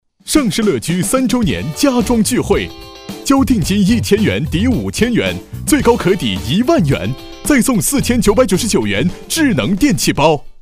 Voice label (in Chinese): 促销广告; 大祥家装